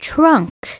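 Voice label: "trunk", English; SoftKey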